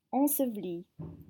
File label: "enseveli", French